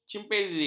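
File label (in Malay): "cimpanzi", Malay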